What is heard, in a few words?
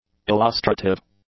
"illustrative", English; adjectives